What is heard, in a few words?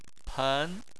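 "pen" second tone, Chinese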